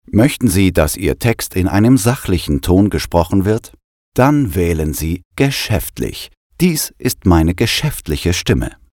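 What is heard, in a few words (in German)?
geschäftlich sachlich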